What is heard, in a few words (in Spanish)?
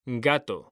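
"GATO" Spanish, male; mekanta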